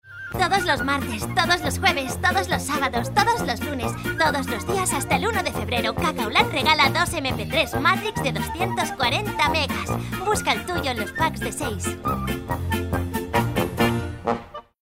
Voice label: female spanish food comercial